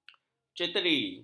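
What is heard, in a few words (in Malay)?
"ceteri", Malay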